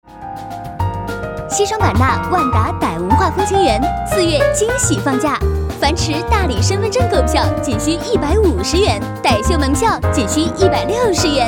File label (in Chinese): 激情广告